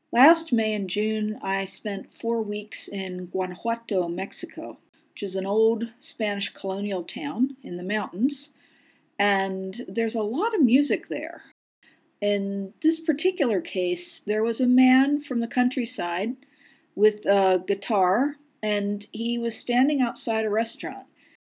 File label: Narration